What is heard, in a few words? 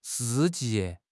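"shi4 ji4", Mandarin Chinese; HSK; HSK4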